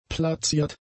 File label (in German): "platziert", German; adjectives